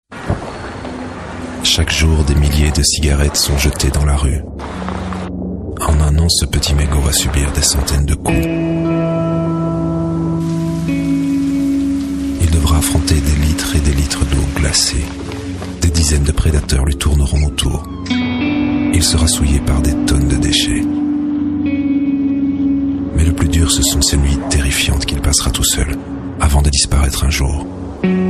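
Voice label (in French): french Belgian male narration; voice demos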